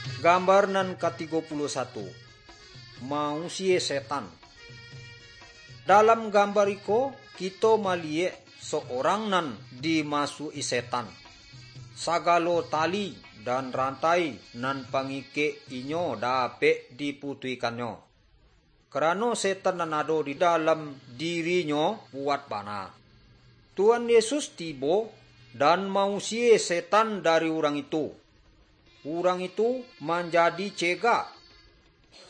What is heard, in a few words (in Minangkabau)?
good news minangkabau